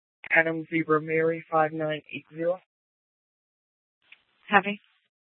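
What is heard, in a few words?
police dispatch radio, scanner; TOPAZ Regional Wrlss Cooperative (TRWC) - Mesa Simulcast; Mesa PD Dptmnt; 1 Fiesta Dispatch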